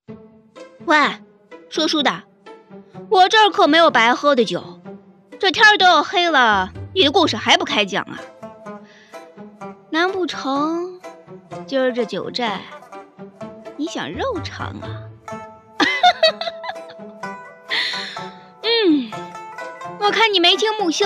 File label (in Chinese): 配音; 我这没有白喝的酒